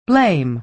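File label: "blame", American English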